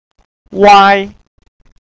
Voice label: "uai" Mandarin